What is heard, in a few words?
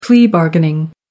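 "plea bargaining", British English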